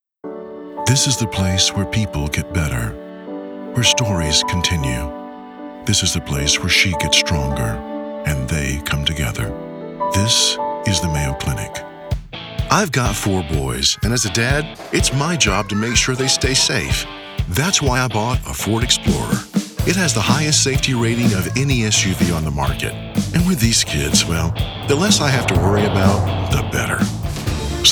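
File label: commercial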